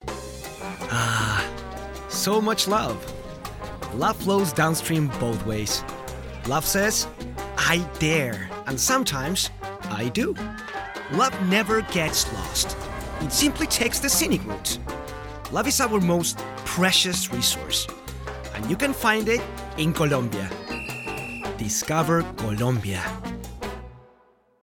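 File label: Latin American Spanish Accented English; GoLocalise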